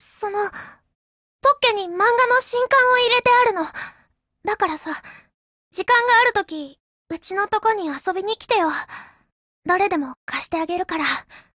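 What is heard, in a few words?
hello, Japanese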